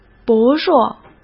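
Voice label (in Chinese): "薄弱", Mandarin Chinese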